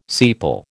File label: "sepal", American